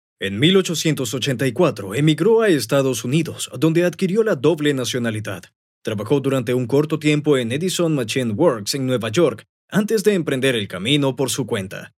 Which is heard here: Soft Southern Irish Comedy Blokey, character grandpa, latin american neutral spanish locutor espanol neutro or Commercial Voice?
latin american neutral spanish locutor espanol neutro